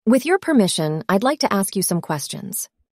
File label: synthesized audio